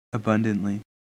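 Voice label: "abundantly", US English; pronunciation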